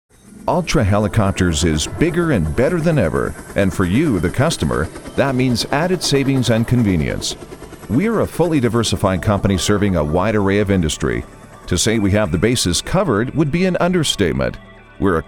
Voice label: english canadian ivr, canadian english voice actors